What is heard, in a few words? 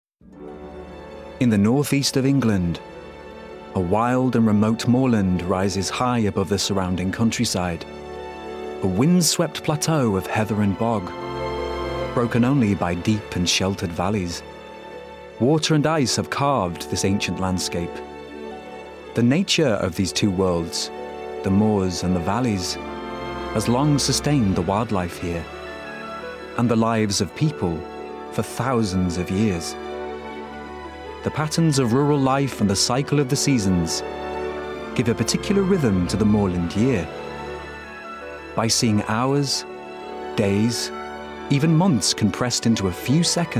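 documentary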